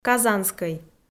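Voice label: "казанскӧй", Komi, female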